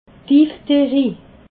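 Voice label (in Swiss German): "diphtherie", Alsatian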